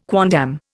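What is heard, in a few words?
"quondam", Indian English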